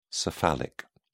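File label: "cephalic", British English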